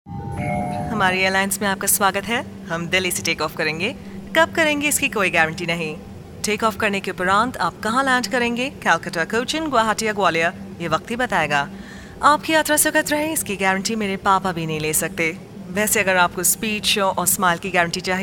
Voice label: Hindi, female voice; Samsung

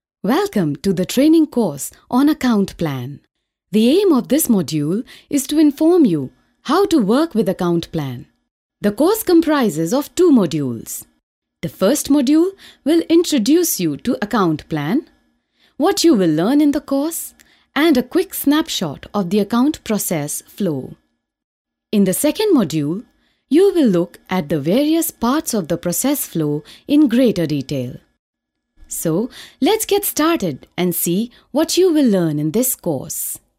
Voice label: e learning, delhi, female voice

